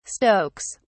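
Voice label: "stokes", English, British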